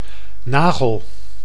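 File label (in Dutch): "nagel", Dutch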